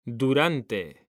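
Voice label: "DURANTE", Spanish; mekanta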